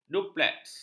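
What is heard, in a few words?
"dupleks", Malay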